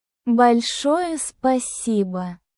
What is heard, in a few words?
russian phrases